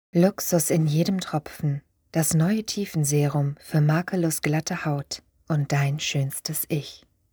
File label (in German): Werbung - Kosmetik